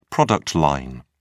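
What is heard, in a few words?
"product line", English, British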